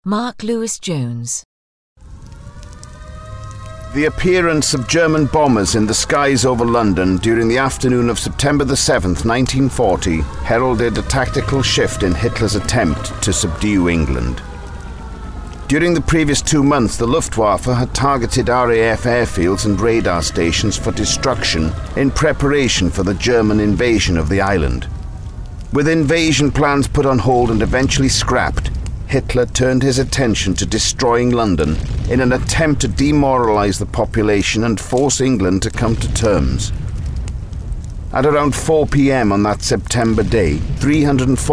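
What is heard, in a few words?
narration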